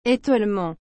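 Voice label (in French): "étoilement", French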